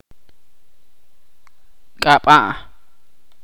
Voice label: "nombre" in Ch'orti'